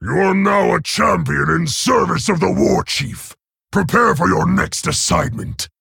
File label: Male Orc